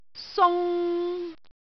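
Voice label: "sung3", Cantonese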